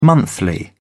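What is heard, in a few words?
"monthly", British English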